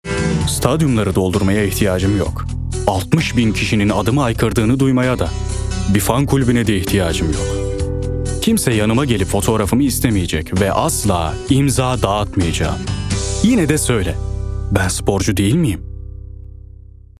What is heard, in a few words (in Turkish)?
sicak sakin